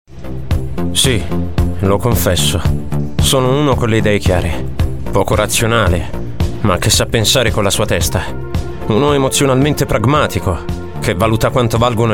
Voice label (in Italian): Authoritaria